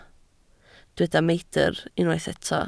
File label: "meidr", welsh